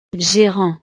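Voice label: "gerant", French; profesiones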